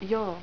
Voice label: "joo", Finnish

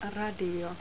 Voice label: "radio", Finnish

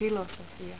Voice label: "filosofia", Finnish

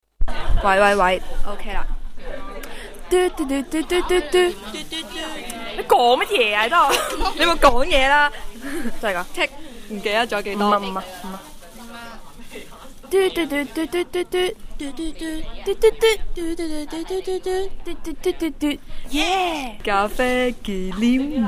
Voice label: advert

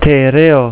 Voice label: "te reo", maori

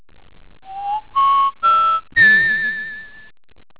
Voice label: Announcement

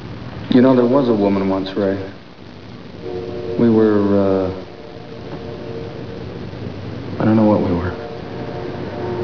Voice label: woman; There was a woman once, Ray.

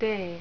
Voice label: "tee", Finnish

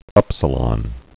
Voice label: upsilon, greek alphabet

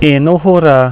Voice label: "e noho ra", Reo Maaori

